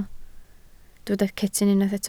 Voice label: "cetyn", Welsh